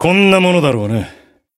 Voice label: Voice win jp